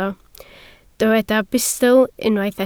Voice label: "bustl", Welsh

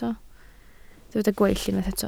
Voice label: "gweill", Welsh

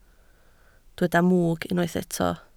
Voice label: "mwg", Welsh

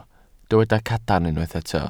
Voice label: "cadarn", Welsh

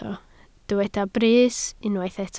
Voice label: "brys", Welsh

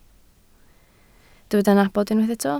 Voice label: "nabod", Welsh

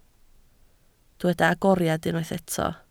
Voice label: "agoriad", Welsh

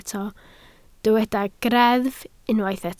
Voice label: "greddf", Welsh

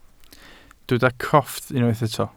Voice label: "crofft", welsh

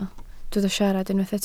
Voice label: "siarad", Welsh

